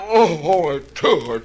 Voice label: "oh ho a tooey", voice; ooh ahh

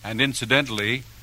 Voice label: announcer; and incidentally

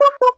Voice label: "uh oh", cartoon; ooh ahh; uh um

